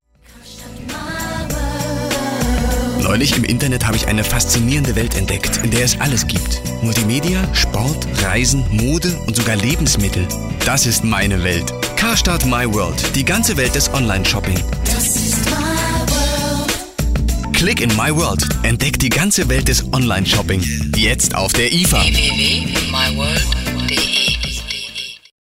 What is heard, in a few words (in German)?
W Fremdtake Freundlich